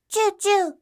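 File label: "chuuchuu", Japanese; doubutsu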